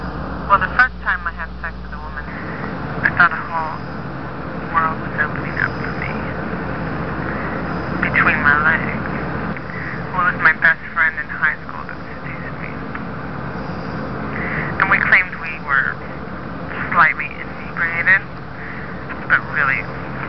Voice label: lesbian phone talk, silly lo-fi orgasms